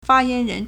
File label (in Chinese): "fa1yan2ren2", Chinese